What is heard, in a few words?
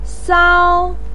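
"sao", Chinese pinyin, first tone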